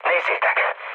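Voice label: enemy detected, mood low, Hungarian; War Thunder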